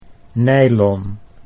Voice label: "nylon", Dutch